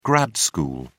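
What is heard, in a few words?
"grad school", English, British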